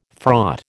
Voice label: "fraught", American

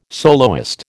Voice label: "soloist", American

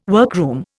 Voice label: "workroom", Indian

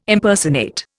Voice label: "Impersonate", Indian

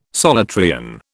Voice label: "Solutrean", British

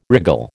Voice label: "wriggle", American

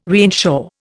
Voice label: "reinsure", Indian

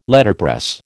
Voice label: "Letterpress", American

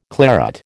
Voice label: "claret", American

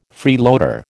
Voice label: "freeloader", American

